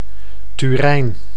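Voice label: "Turijn", Dutch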